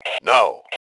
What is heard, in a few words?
"No", male; T2 Male 04